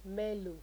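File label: "mellow", Nigerian Pidgin, female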